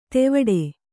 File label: "ತೆವಡೆ", Kannada